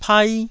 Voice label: "pai1", taiwanese